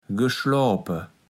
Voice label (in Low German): "geschloape", kaevels platt